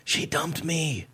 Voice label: whispering she dumped me

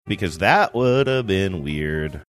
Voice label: saying because that woulda been weird sing songy

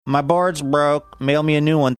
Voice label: saying my boards broke mail me new one funny

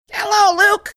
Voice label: saying hello high pitched

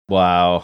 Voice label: flat wow

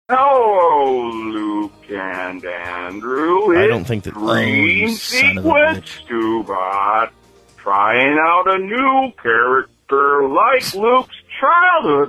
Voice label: voicemail message

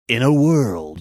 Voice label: saying in a world movie promo voiceover

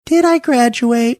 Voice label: did I graduate funny voice

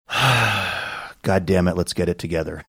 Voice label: sighing saying goddamn it let's get it together

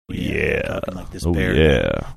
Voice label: saying yeah oh yeah deep voice

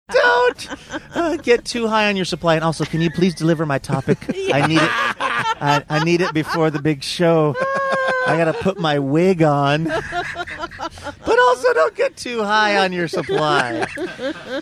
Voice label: don't get too high on your supply very loopy

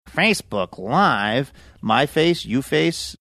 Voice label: Facebook live my face you face funny

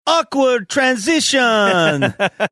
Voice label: saying awkward transition funny

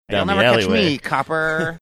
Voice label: you'll never catch me copper, 1940s gangster

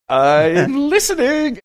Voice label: saying im listening funny

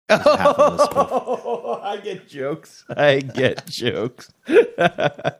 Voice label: laughing saying i get jokes

